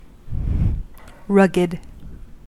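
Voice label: "rugged", American English; pronunciations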